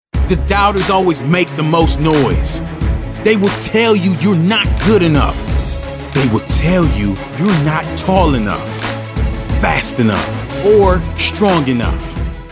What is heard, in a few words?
Sports Promo